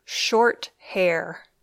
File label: "short hair", English